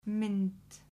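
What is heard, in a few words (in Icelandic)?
"mynd", Icelandic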